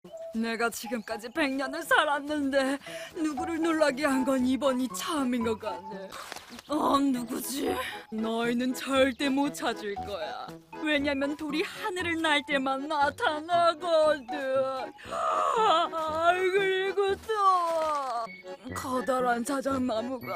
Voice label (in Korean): ani 40대 여 게으르고 둔한